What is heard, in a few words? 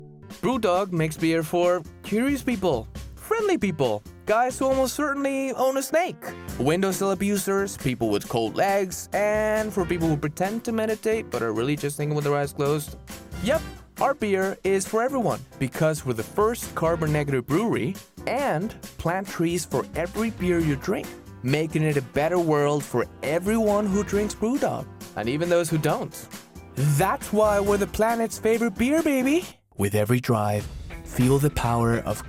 Commercials